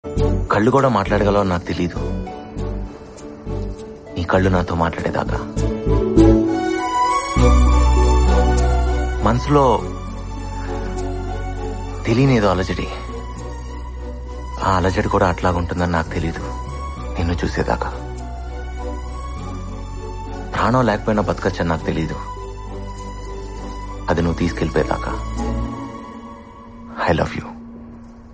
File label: Telugu Dailogue; Malli Malli Idhi Rani Roju